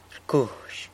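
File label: "rcuzh", San Lucas Quiavini Zapotec; plays (a wind instrument)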